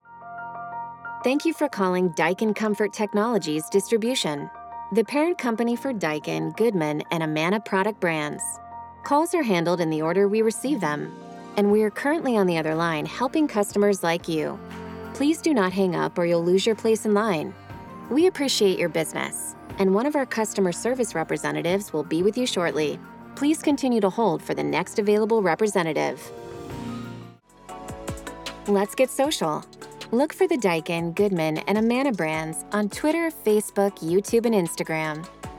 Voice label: IVR WARM CONVERSATIONAL INFORMATIVE; Daikin